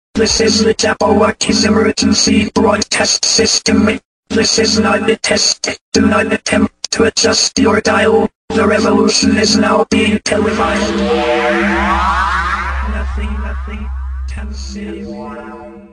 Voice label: robot voice; ringtone; jabbawockeez